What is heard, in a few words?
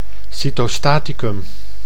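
"cytostaticum", Dutch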